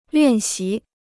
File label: "练习", Chinese